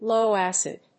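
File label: "low acid", English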